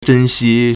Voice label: "zhen1xi1", Chinese